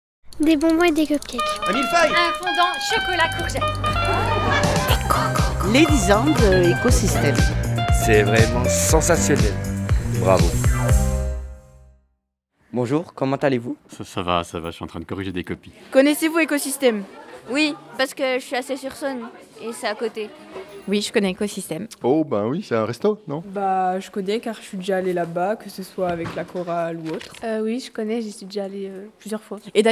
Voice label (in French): microtrottoir; college; anniversaire; les 10 ans d echo system